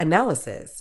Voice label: "analysis", Medical English; əˈnæl.ə.sɪs; uh-NAL-uh-siss

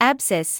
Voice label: "abscess", English

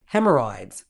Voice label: "haemorrhoids", medical English

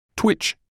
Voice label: "twitch", medical English

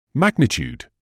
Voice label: "magnitude", English

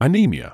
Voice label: "anaemia", medical english uk; əˈniː.mɪ.ə; uh-NEE-mi-uh

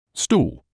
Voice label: "stool", English

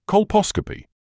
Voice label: "colposcopy", medical english uk; kɒlˈpɒs.kə.piː; kol-POSS-kuh-pee

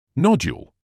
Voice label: "nodule", English

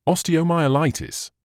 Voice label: "osteomyelitis", English

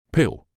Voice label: "pill", English

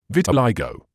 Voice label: "vitiligo", medical English

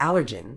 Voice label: "allergen", Medical English; ˈæl.ə.dʒən; AL-uh-juhn

